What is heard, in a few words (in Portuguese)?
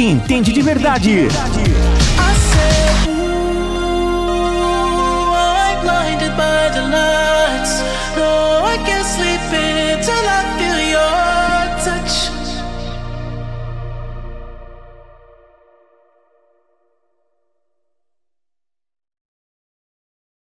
LOCUTOR Barbearia; El Gato